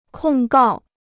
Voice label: "控告", Chinese

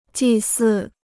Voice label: "祭祀", Chinese

